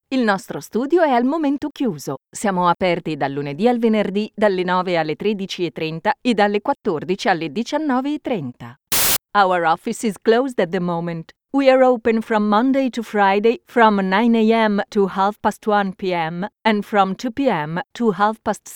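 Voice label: italian interactive voice response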